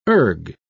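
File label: "erg", British English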